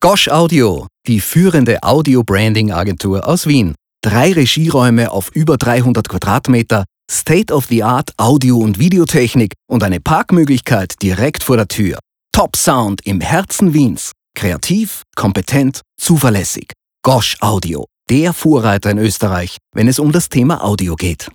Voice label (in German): plakativ